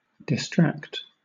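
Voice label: "distract", British English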